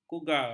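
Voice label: "kugar", Malay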